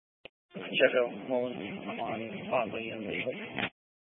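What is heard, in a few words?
Sheriff Dispatch, scanner audio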